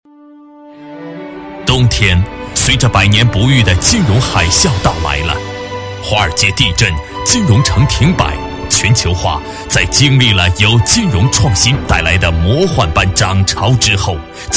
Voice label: 男40 金融专题, 国语男声配音

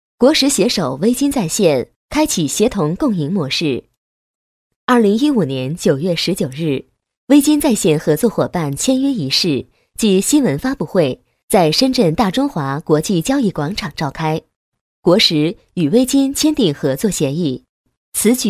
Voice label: 女 新闻 新闻发布会报告, 国语女声配音

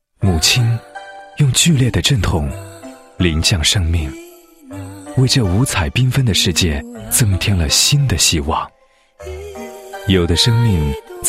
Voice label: 男 医院专题, 医院宣传介绍; 生命的太阳

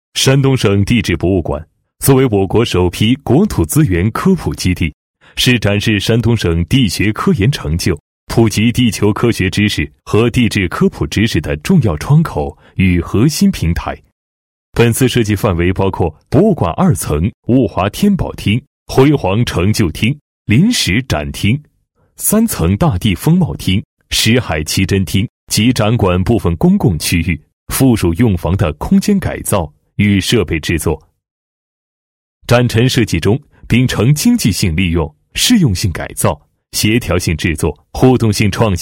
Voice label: 男 平实客观 项目汇报, 国语男声配音

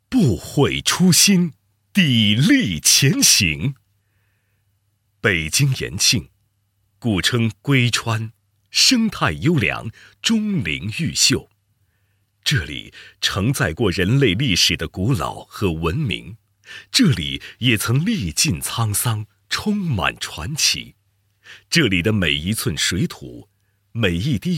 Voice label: 男, 医院宣传; 北京市延庆区医院

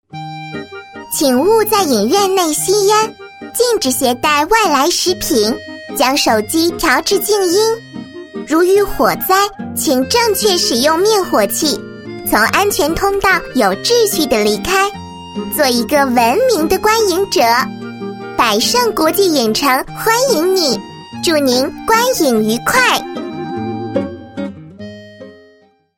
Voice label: 女19 女童 奶气认真 观影提示; 影城观影提示; 佰盛国际影城观影提示

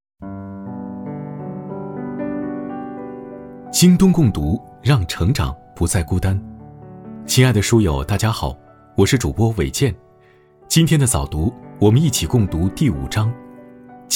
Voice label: 男 知性风格

